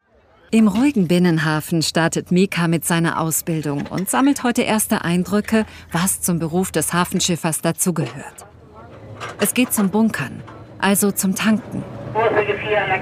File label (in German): offkommentar reportage touristenmagnet landungsbruecken; ndr die nordreportage; narrativ